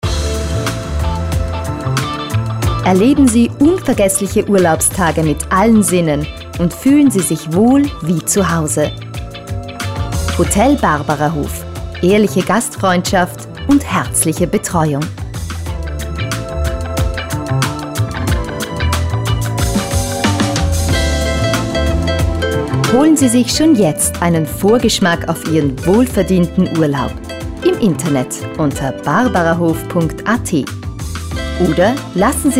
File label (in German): Telefonansage; Hotel Barbarahof; polysound